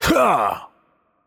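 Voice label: voice over, French; Change Player; bloom minigame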